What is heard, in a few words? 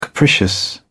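"capricious", English, British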